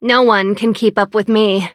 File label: battle en